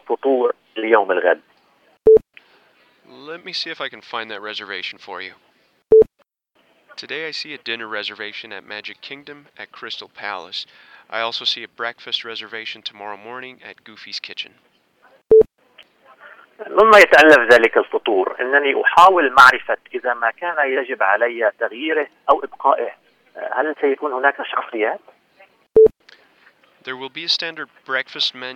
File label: Travel and Hospitality Guest Services Arabic without interpretation